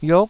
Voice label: "yiuk9", taiwanese